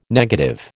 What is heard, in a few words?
"negative", American